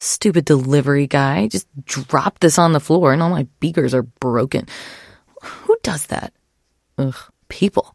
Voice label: trader monolog; 商人杰恩（七日杀）

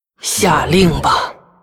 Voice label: female demon hunter morphed what, zh

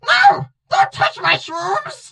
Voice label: hurt vo; cordelius

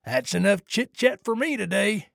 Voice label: trader male neutral sale declined

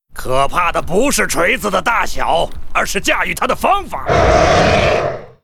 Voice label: pissed, zh

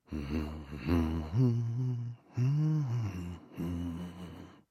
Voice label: male hate roam

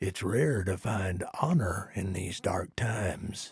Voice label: trader male like quest accepted

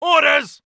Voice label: uk Neutral

